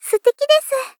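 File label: Polite, Praise, "Suteki desu", Japanese female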